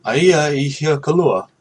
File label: toilet, hawaiian